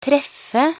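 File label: "treffe", Norwegian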